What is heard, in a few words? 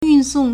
"yun4song4", Chinese